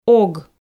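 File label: "ог", Komi, female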